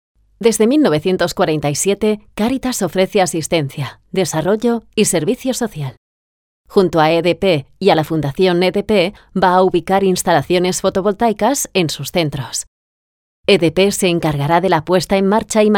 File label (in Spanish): Femenino